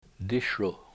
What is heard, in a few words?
"digging stick", Washo; dishu